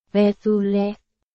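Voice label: Burmese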